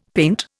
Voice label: "paint", Indian; Dictionary